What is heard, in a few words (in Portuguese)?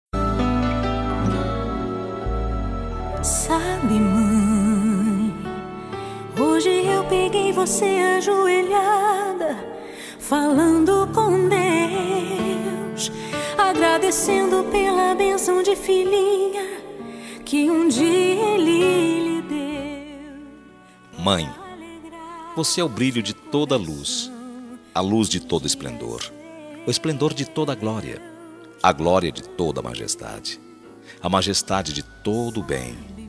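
DIA DAS MAES EVANGELICO male, telemensagem; DIA DAS MAES EVANGELICO MASC; telemensagem dia das maes evangelica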